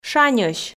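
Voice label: "шаньӧсь", Komi, female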